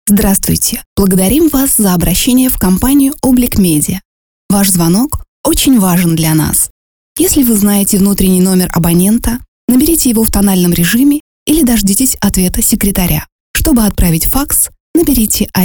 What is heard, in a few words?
IVR